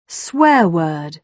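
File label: "swear word", British English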